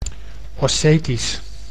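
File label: "Ossetisch", Dutch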